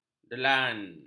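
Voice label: "delan", Malay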